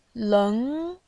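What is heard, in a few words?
"leng", Mandarin Chinese, second tone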